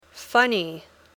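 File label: "funny", English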